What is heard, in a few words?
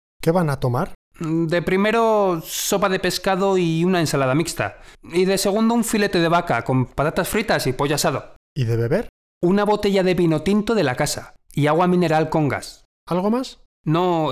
conversation